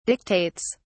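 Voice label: "dictates", British English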